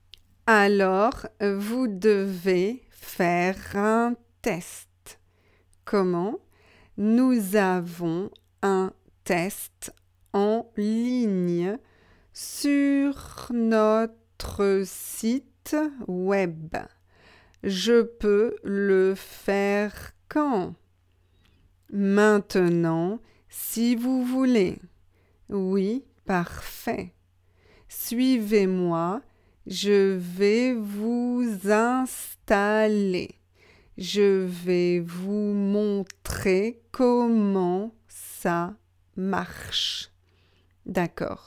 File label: slow